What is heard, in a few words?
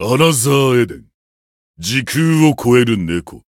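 voice title, Japanese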